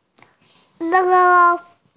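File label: "ne1", Mandarin Chinese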